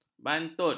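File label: "bantut", Malay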